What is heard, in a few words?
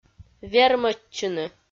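"вермӧдчыны", Komi, female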